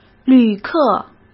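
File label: "旅客", Mandarin Chinese; hsk; chinese-flashcards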